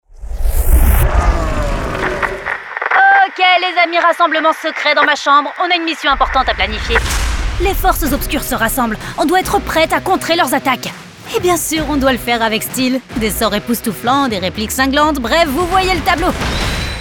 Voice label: french animation

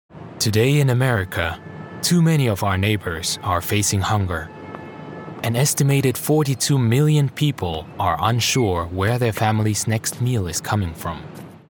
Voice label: English international narration

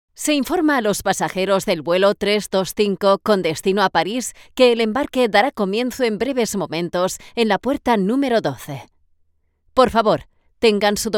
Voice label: spanish ivr

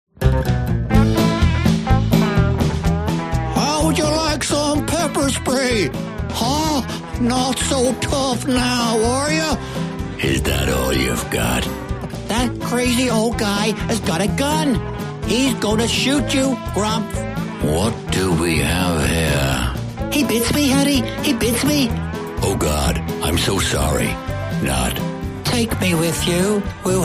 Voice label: english irish animation; Warm, authentic Irish voice with depth, clarity and versatility

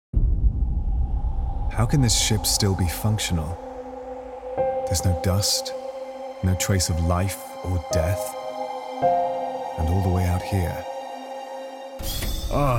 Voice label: english british video games

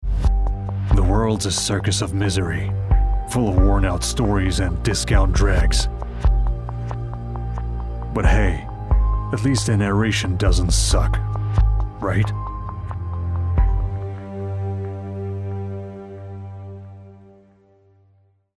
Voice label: russian movie trailers

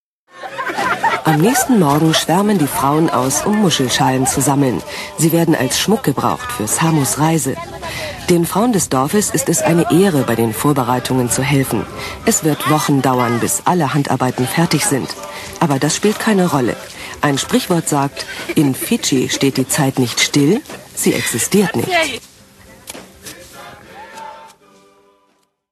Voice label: german documentaries

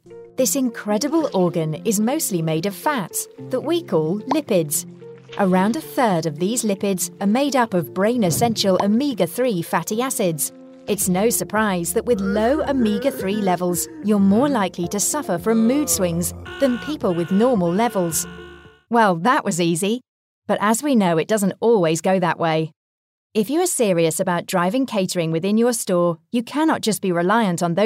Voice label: english british explainer videos

